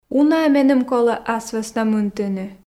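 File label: "уна-ӧ меным колӧ ас вӧсна мынтыны", Komi, female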